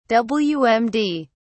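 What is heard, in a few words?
"wmd", British English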